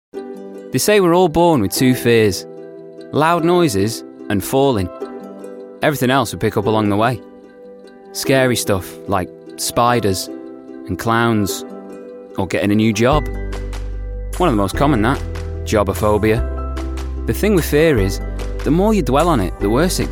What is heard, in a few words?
advert jobsite